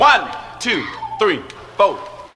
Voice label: 5 4 3 2 announcer; numbers and letters